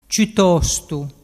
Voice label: "ciutostu", dialettu

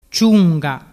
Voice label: "ciunga", dialettu

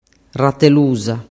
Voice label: "ratelusa", dialettu

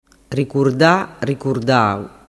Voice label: "ricurda au", dialettu

